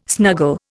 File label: "snuggle", Indian